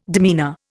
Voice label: "demeanour", Indian